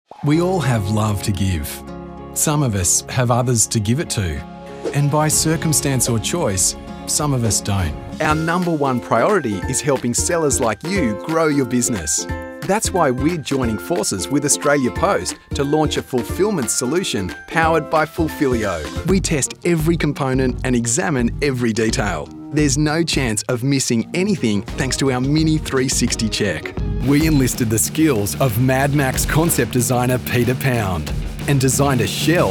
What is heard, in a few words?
narrations; VO DEMO